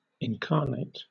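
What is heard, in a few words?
"incarnate", British English; pronunciations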